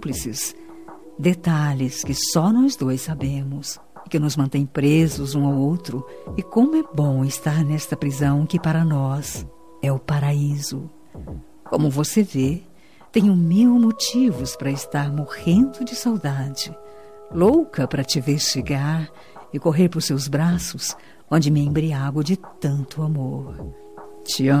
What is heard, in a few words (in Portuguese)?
dia dos namorados distante, voz feminina